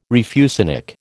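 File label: "refusenik", American English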